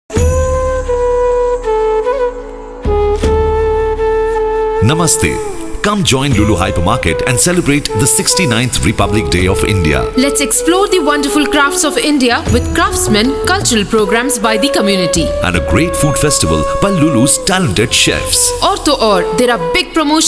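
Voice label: radio spot english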